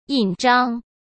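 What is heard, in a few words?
"印章" Mandarin Chinese; hsk; chinese-flashcards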